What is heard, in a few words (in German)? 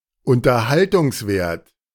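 "Unterhaltungswert", German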